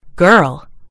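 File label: "girl", British English; gcompris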